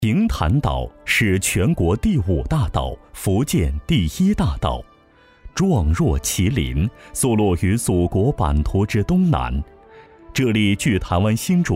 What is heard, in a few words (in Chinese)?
男 专题 医疗专题; 平潭